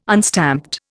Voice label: "unstamped", Indian